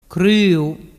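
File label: "cruu", Vernazzese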